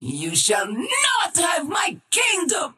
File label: attack pain; Vengeful Spirit